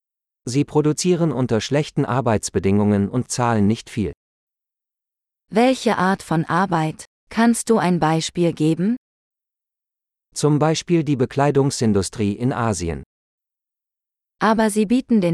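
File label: Global Inequality - Dialogue, German; de-en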